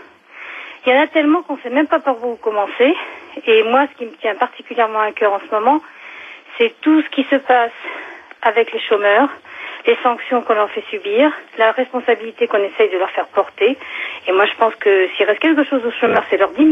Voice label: Repondeur manif; chomage

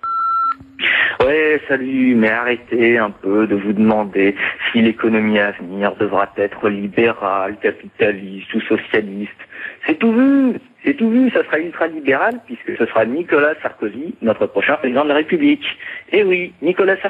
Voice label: répondeur; Nicolas Sarkosy

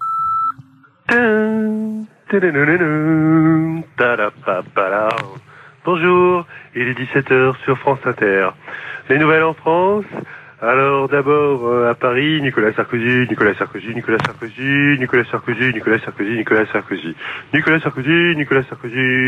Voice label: répondeur; Nicolas Sarkosy

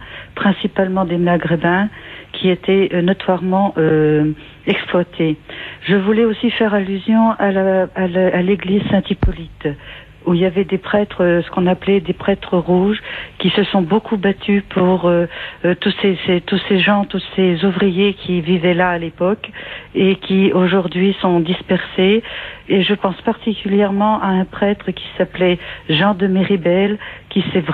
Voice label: Quartier chinois répondeur

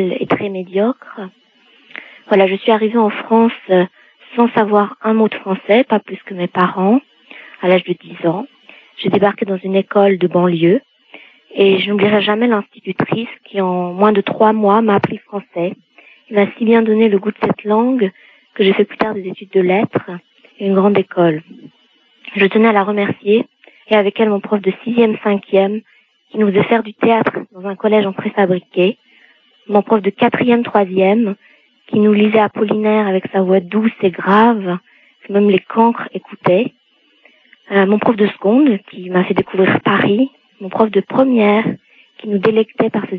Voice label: Enseignement publique repondeur

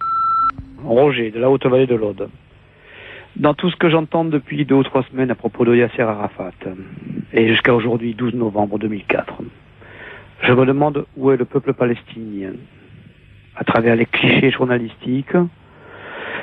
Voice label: répondeur; Yasser Arafat

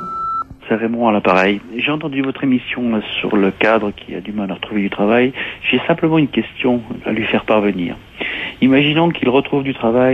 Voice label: repondeur septique; Cadre jetable

